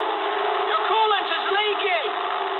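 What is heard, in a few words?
damaged water mood medium, speech English; War Thunder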